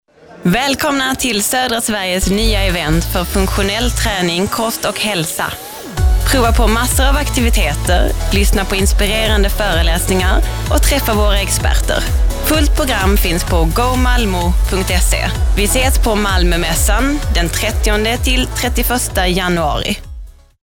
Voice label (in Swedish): REKLAM; MALMÖMÄSSAN